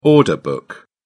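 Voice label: "order book", English, British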